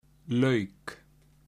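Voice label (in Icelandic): "lauk", Icelandic; matarhefti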